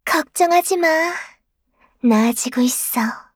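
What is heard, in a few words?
kill Player, Voice Line, Korean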